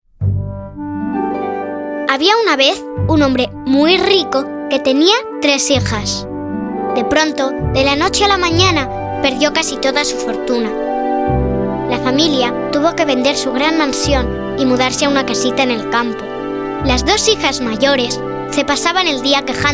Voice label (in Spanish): infantiles